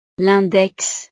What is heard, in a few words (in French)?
"l index", frances; partes del cuerpo